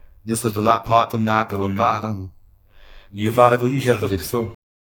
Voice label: an ancient roman soldier, historical

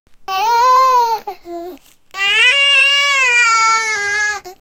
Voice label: an infant saying the word, infant

